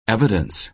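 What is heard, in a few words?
"evidence", American English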